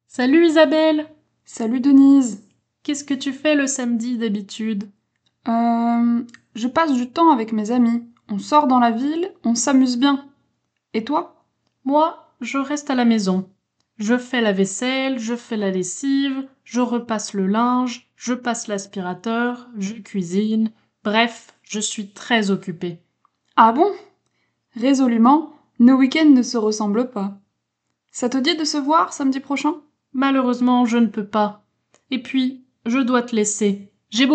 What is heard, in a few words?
Dialogue son s, french